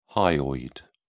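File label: "hyoid", British English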